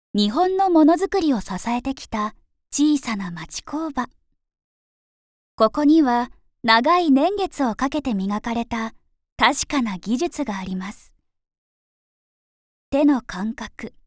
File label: Narration Documentary